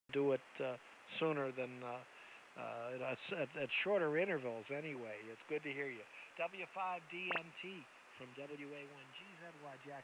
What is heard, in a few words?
qso